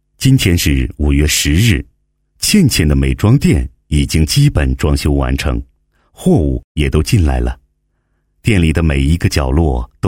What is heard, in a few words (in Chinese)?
男 人物专题, 国语男声配音, 浑厚; 浑厚专题